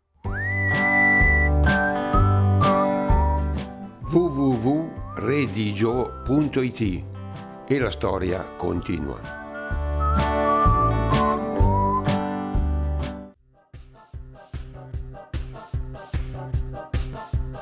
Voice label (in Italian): dialetto motti